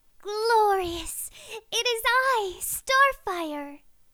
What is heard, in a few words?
Voiceover Actress; Starfire